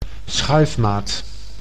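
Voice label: "schuifmaat", Dutch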